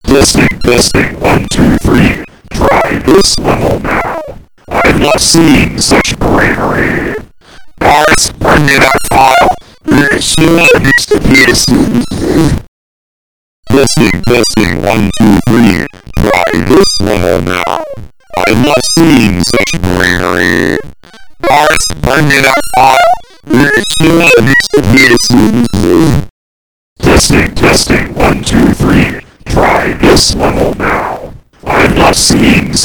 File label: lpc yay